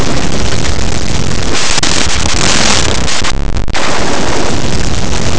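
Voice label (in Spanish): "juzgado", Spanish; laboratori fonetica; fricatives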